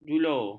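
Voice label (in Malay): "julo", Malay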